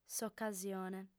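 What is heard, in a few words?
"s'ocasione", female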